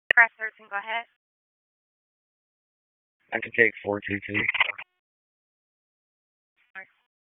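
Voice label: Police Northeast District Dispatch, p25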